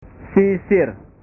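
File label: "sisir", Indonesian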